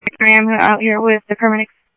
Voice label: police, scanner audio